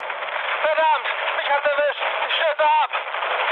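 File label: German aircraft destroyed mood med, speech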